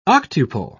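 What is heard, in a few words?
"octuple", English, British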